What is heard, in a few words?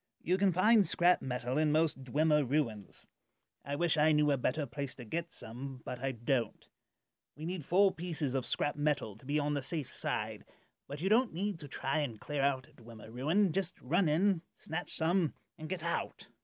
wood elf male audition